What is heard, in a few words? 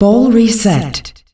"Ball Reset", announcer female; Unreal Tournament; ut2004